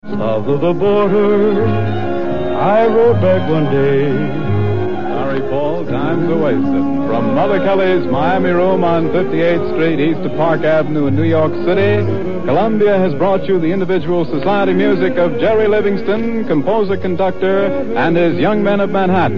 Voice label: CBS announcer